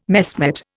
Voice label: "messmate", Indian